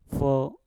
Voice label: "fo", Mpur; tumbuh